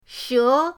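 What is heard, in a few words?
"she", Mandarin pinyin, second tone; pinyindct-open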